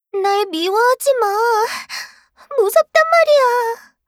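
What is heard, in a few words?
kill Player, Voice Line, Korean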